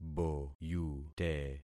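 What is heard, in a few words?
"boyute", concatenated syllables; Affixing experiment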